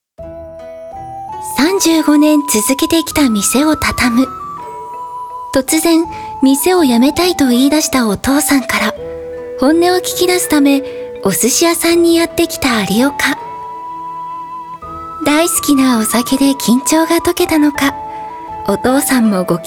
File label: narration